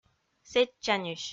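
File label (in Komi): "сэтчаньысь", Komi, female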